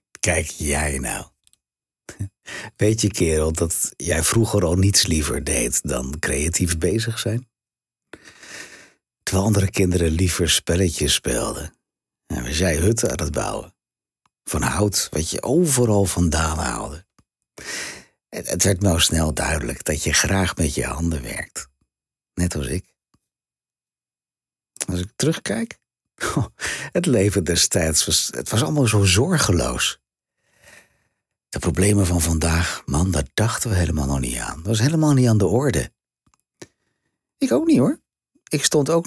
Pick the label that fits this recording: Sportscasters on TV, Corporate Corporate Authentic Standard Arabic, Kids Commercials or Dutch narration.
Dutch narration